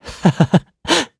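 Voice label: Vox Happy, Korean; Siegfried; kingsraid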